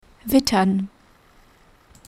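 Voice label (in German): "wittern", German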